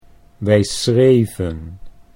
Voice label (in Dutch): wij schreven, Dutch